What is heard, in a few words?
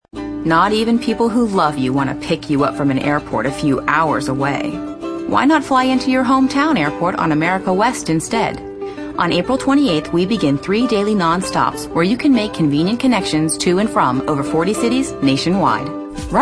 female, late20s late30s clear